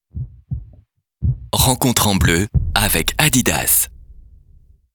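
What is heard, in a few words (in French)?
droit sobre, voix off parrainage, homme; Adidas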